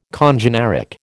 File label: "congeneric", American